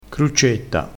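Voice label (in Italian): "cruccetta", vocabolario dialettale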